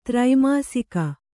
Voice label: "ತ್ರೈಮಾಸಿಕ", Kannada

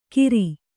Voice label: "ಗಿರಿ", Kannada

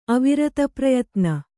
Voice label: "ಅವಿರತಪ್ರಯತ್ನ", Kannada

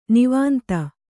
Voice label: "ನಿವಾಂತ", Kannada; nivānta; noun; stillness; silence.

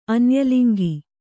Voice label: "ಅನ್ಯಲಿಂಗಿ", Kannada

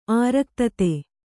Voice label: "ಆರಕ್ತತೆ", kannada

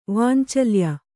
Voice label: "ವಾಂಛಲ್ಯ", kannada

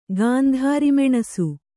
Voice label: "ಗಾಂಧಾರಿಮೆಣಸು", Kannada

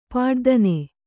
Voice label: "ಪಡಿದನಿ", kannada

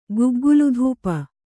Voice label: "ಗುಗ್ಗುಲುಧೂಪ", Kannada

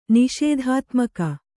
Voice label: "ನಿಷೇಧಾತ್ಮಕ", Kannada

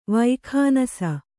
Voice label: "ವೈಖಾನಸ", kannada

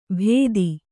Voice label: "ಭೇದಿ", Kannada

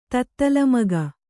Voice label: "ತತ್ತಲಮಗ", Kannada; tattalamaga; noun; a slave.

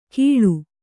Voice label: "ಗೀಳು", Kannada

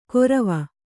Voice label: "ಗೊರವ", Kannada

